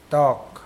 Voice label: "dog", Mokilese